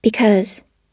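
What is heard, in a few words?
"because", American English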